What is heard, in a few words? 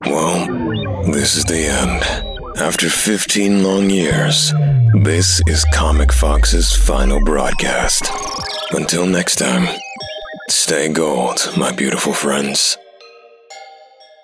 Broadcast, en-AU